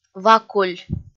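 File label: "вакуль", Komi, female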